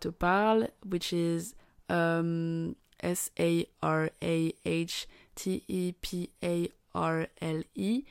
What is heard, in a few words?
verification, very French, very confused BUT very excited, F